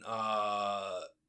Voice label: Confused